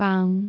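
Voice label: "baang3", Cantonese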